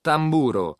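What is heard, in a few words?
"tamburo", Italian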